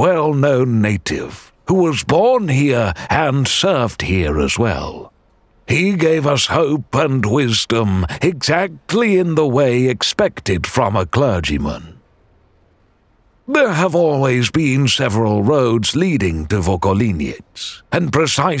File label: Church ENG, audiosprievodca